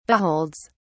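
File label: "beholds", British English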